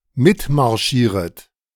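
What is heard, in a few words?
"mitmarschieret", German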